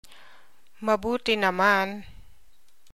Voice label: Tagalog Translation Im good. Mabuti naman.